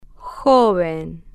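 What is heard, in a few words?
"joven", Spanish, female, slow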